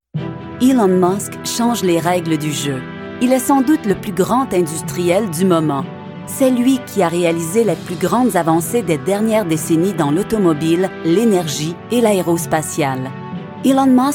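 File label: F, French Canada, female, corporate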